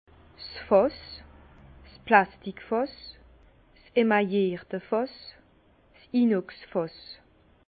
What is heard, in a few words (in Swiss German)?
"fass", Bas Rhin; vin; cuve